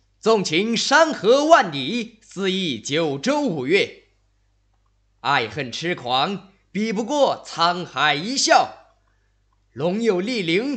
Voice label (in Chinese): 角色 模仿; 韩信